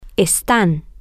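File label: they are temporary, Spanish, female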